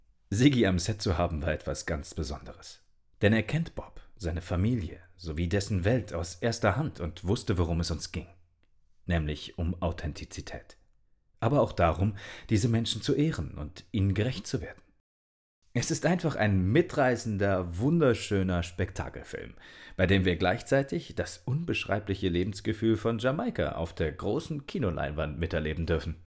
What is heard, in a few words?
Voicesample Voiceover; Bob Marley